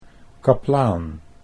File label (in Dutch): "kapelaan", Dutch